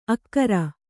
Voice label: "ಅಕ್ಕರ", Kannada

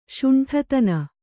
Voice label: "ಷಂಡತನ", Kannada

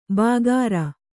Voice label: "ಬಾಗಾರ", Kannada

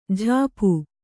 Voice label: "ಝಾಪು", Kannada

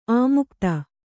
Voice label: "ಅಮುಕ್ತ", Kannada

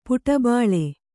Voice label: "ಪುಟಬಾಳೆ", Kannada

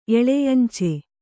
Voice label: "ಎಳೆಯಂಚೆ", Kannada

